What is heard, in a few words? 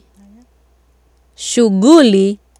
"shughuli", Swahili